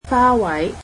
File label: "flowers", Cantonese